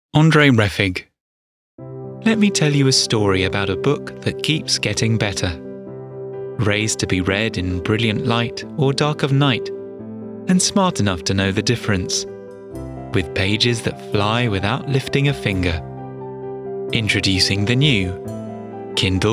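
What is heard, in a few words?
English commercial compilation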